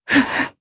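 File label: Vox Casting Japanese; Lewsia; kings raid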